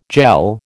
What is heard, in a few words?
"jell", American